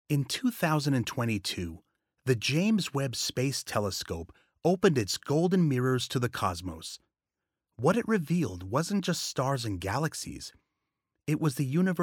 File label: Video Narration Awe; J.W. Telescope